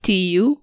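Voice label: "tio", European Portuguese; familia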